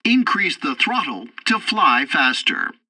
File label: tutorial fighter throttle, english; War Thunder